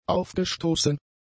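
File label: "aufgestoßen", German; adjectives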